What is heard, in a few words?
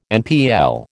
"NPL", American